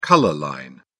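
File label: "color line", British English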